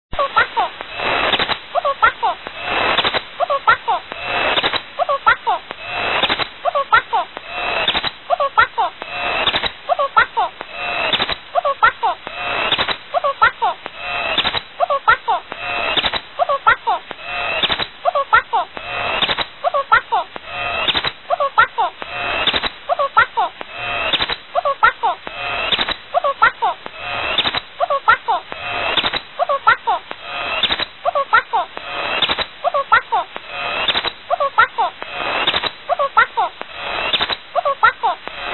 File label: fo-29 digitalker; Synthesized / voice running twice as fast as it should be, recorded / by KD4APP on Aug 24rd 2003